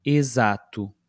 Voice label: "exato", Portuguese